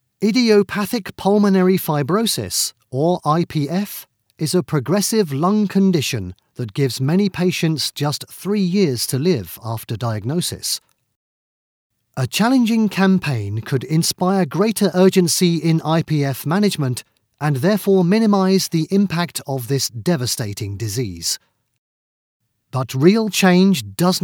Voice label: Medical explainer